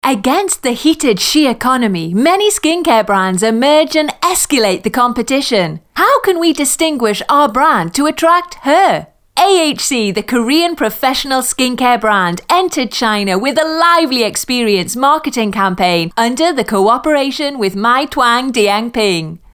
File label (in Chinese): 护肤品牌宣传片激情活力